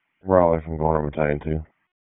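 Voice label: Fire Department Dispatch, P25; wake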